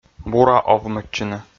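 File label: "бура овмӧдчыны", Komi, male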